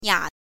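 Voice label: "nia", pinyin, third tone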